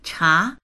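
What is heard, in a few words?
"cha2", Chinese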